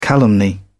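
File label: "calumny", British English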